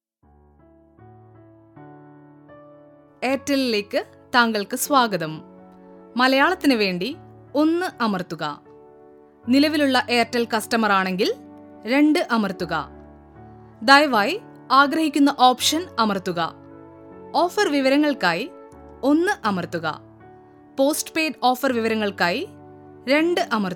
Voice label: ivr malayalam